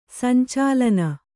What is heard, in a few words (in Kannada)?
"ಸಂಚಾಲನ", Kannada